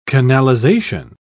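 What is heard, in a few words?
"canalization", British English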